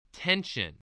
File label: "tension", spelling